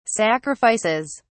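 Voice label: "sacrifices", English, British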